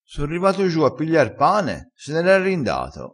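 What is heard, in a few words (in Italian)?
"rinda", dialettale, crespinese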